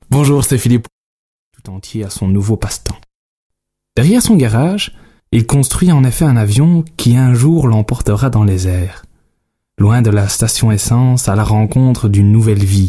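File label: French, male, various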